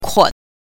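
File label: "kuo", Mandarin Chinese pinyin, neutral tone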